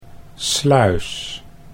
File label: "sluis", Dutch